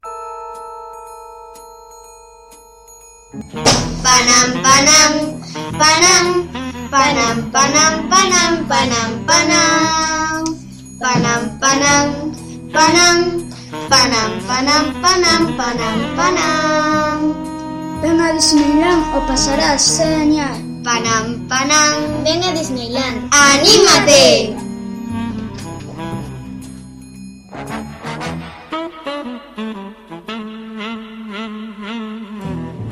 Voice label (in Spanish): publicidad